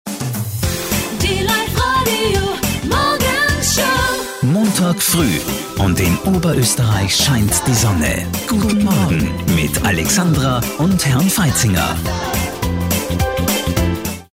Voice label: german voice continuity radio